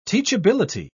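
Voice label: "teachability", British English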